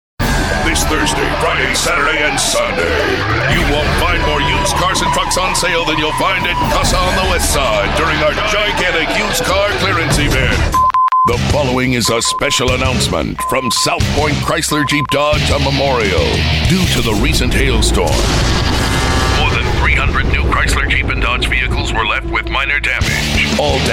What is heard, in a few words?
Promo